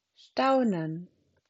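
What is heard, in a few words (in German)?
"staunen", Deutsch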